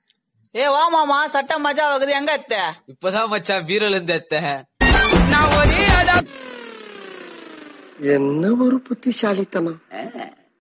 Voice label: Tamil Funny Dialogue Ringtone; Enna Oru Buthisalithanam